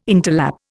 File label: "interlap", Indian